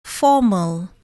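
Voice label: "formal", English word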